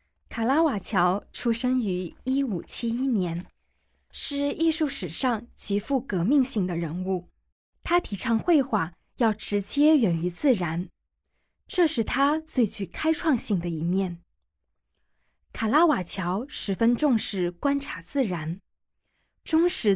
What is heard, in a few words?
Mandarin, audio guide; g9-botticelli-to-van-gogh